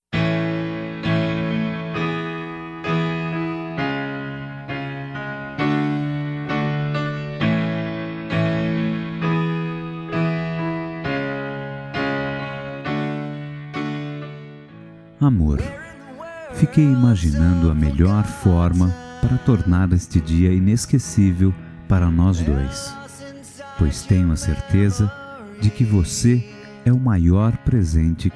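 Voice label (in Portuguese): DIA DOS NAMORADOS, Masculino; Telemensagens 2017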